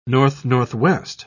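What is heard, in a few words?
"north-northwest", British English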